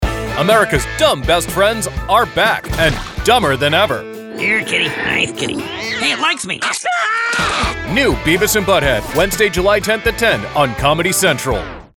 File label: Over the Top Off Beat Announcer; Beavis Butthead